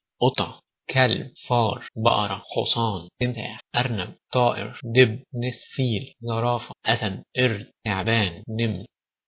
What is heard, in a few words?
egyptian; animals